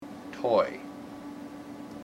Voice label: "toy", IPA